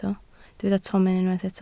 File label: "tomen", Welsh